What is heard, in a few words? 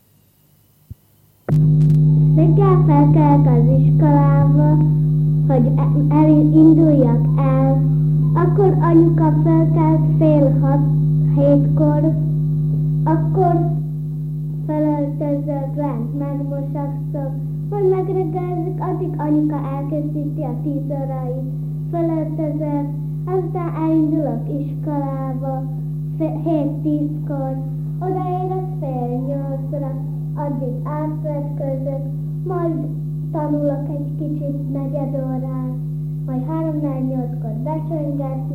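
dialect collections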